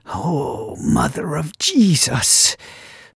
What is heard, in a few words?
generic male senior wondering